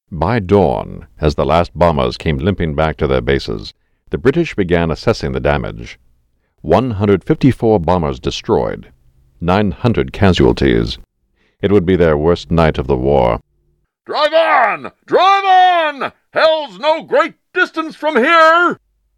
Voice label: documentary